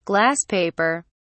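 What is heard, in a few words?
"glass paper", British English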